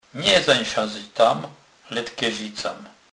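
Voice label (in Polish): "letkezic", Kurpie dialect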